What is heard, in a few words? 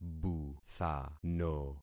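"buthano", concatenated syllables; Affixing experiment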